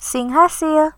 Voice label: good luck, sundanese